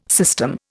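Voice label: "system", Indian English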